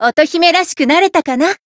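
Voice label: Voice Japanese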